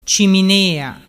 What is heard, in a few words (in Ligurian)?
"ciminea", dialettu